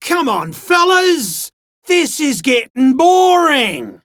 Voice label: Dialog Barks Battle, en; Warframe; Chipper